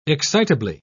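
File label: "excitably", British English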